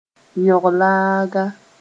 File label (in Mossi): "yõg-laaga", moore